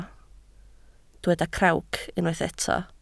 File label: "crawc", Welsh